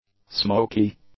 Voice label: "smoky", english; adjectives